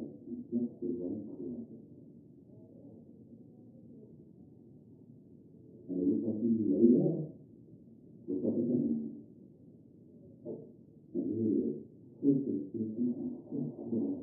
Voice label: Television; Golf Game With Announcer, Crowd And Players. Through Thick Wall.